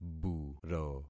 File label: "buro", concat syllables; Affixing experiment